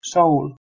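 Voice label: "soh2wl", Proto-Indo-European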